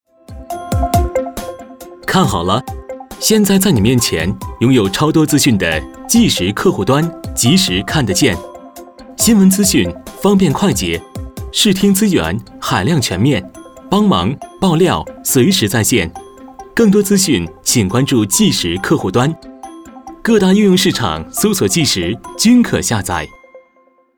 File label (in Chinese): 广告科技; 冀时客户端